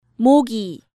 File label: "Mogi", Korean